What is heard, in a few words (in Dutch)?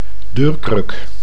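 "deurkruk", Dutch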